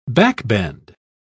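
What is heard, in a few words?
"backbend", British English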